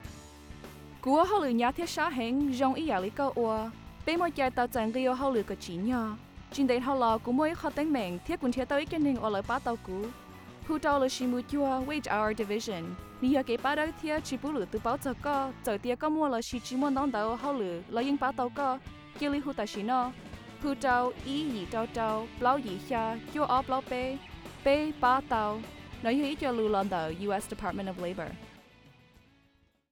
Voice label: Worker Hmong

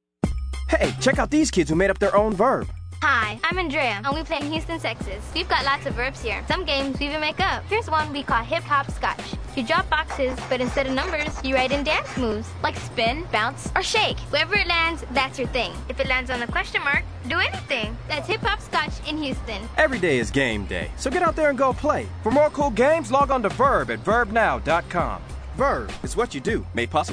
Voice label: HipHop, radio spots

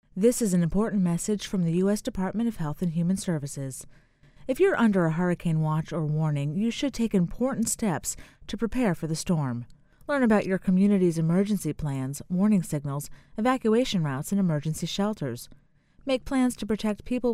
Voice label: psa hurricane preparedness female voice

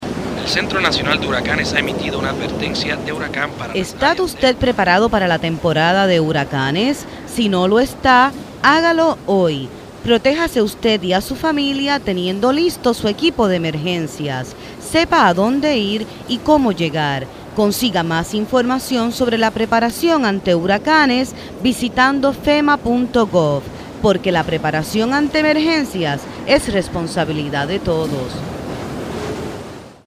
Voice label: Hurricane SPANISH; fema

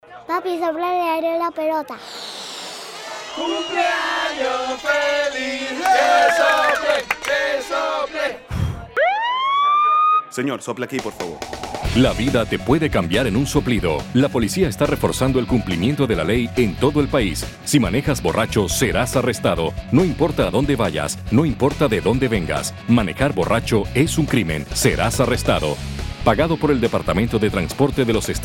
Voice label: Spanish Radio; NHTSA

